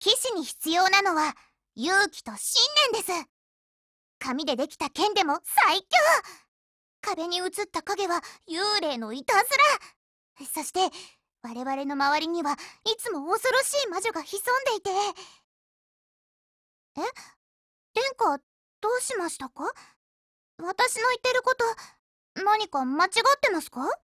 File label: DIALOGUE JP